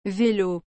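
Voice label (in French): "velo", French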